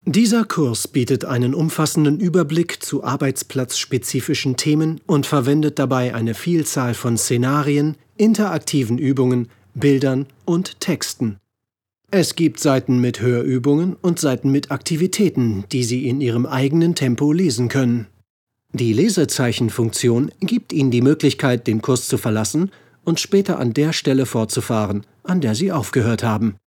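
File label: German elearning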